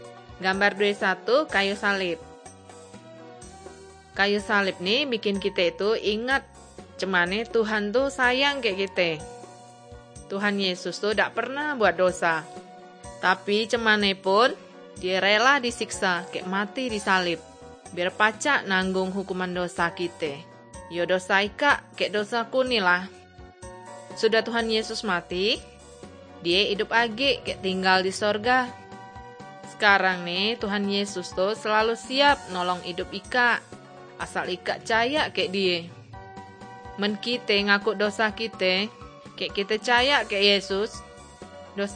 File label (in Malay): kabar baik good news malay bangka